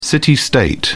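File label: "city state", British English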